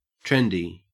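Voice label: "trendy", Australian English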